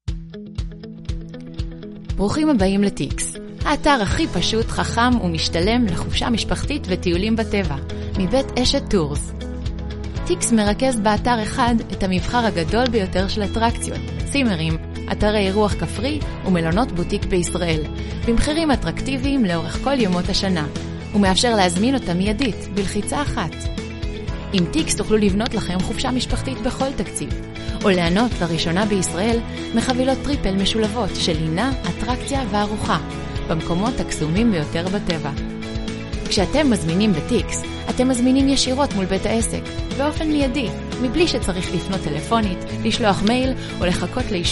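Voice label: Hebrew, female, corporate; voice over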